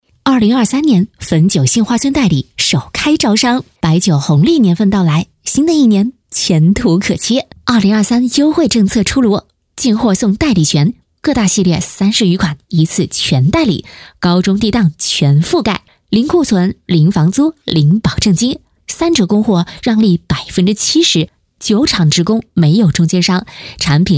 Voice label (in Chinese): 促销, 女